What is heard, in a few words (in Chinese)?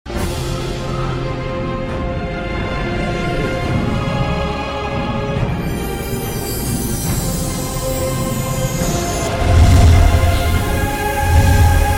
男 企业宣传片